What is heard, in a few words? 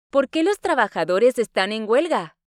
Spanish Listening; Spanish Test